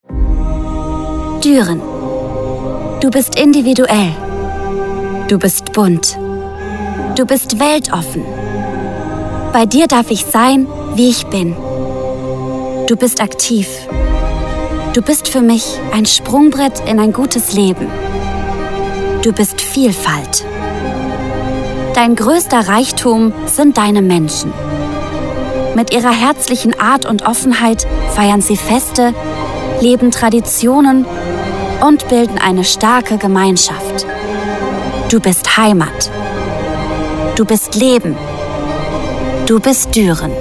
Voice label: german commercial, Girl Next Door

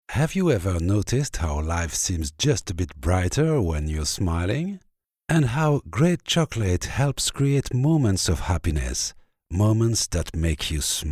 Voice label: english commercial, french voice actors

